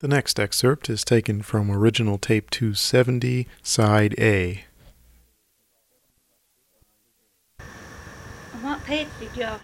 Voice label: Oral history with male and female Pine Ridge Kentucky, american english dialect